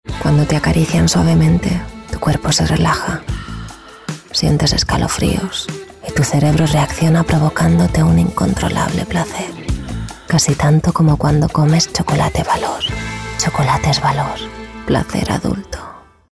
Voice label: female spanish chocolate comercial